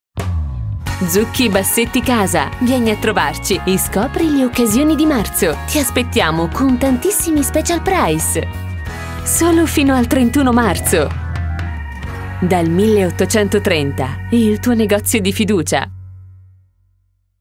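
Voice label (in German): Italian, Fremdtake Freundlich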